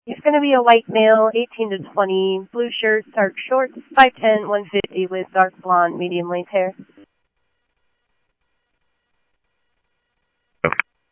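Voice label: police radio scanner traffic, Mesa Simulcast; MESA PD Superstn; MesaPoliceDep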